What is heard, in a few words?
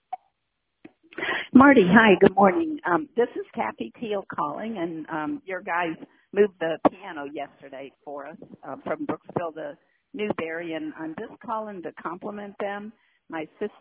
voicemail